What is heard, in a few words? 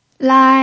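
"lai", Mandarin Chinese pinyin, tone 1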